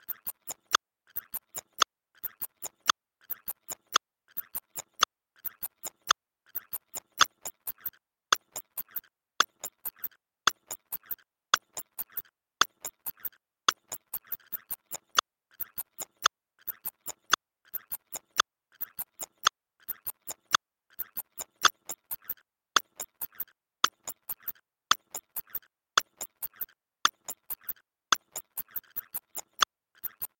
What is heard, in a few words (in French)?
voix enfant